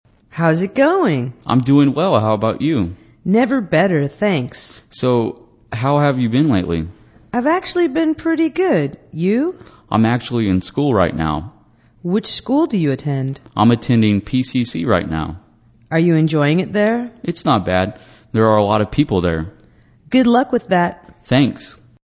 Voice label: english conversation greetings